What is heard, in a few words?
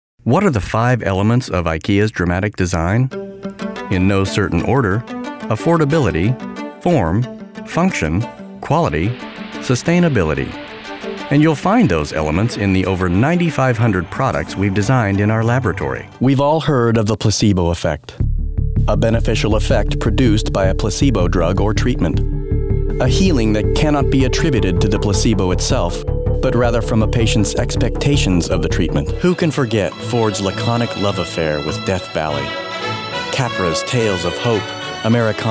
narration